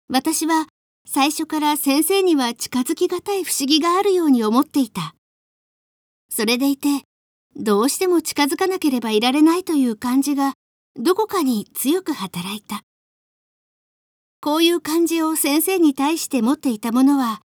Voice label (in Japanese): girl; Japanese; 私の腹の中には始終先刻（さっき）の事が引（ひっ）懸かっていた。肴の骨が咽喉（のど）に刺さった時のように、私は苦しんだ。